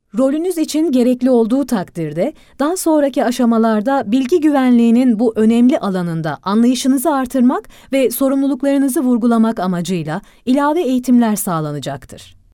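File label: Turkish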